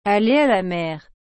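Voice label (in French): "aller à la mer", French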